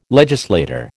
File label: "legislator", American